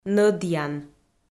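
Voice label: "нӧдъян", Komi, female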